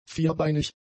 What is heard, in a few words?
"vierbeinig", German; adjectives